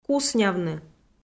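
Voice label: "куснявны", Komi, female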